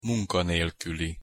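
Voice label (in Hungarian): "munkanelkuli", Hungarian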